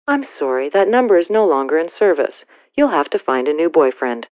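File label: No Longer In Service, Telephone Voice, Female; VoiceBox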